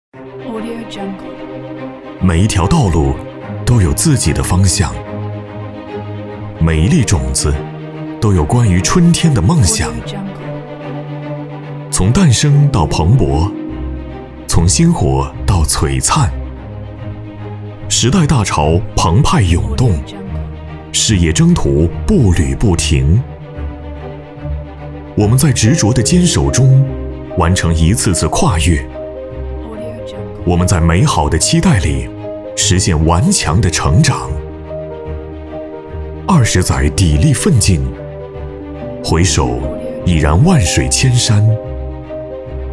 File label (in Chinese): 男 宣传 大气